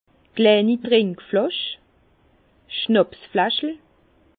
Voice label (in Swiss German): "trinkflasch", Bas Rhin; vin; fiole